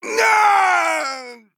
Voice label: Male Troll Death